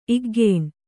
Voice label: "ಇಗ್ಗೇಣ್", kannada

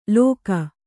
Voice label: "ಲೋಕ", kannada

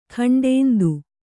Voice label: "ಖಂಡೇಂದು", Kannada

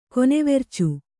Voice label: "ಕೊನೆವೆರ್ಚು", Kannada

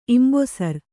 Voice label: "ಇಂಬೊಸರ್", Kannada

